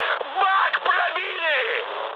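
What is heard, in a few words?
tank damaged, mood high, Russian; War Thunder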